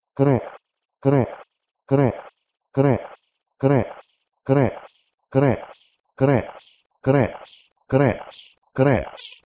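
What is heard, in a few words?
Proto-Indo-European kreuh2 to Ancient Greek kreas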